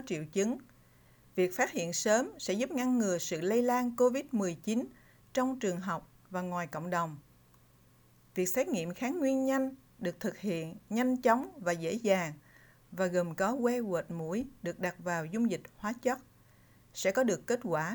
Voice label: rapid antigen test vietnamese; covid-19; Infectious